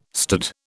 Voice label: "stood", British